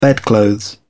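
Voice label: "bedclothes", British English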